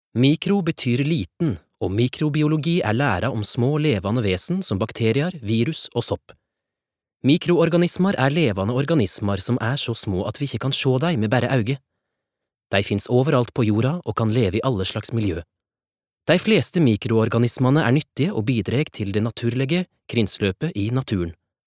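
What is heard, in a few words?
Norwegian nynorsk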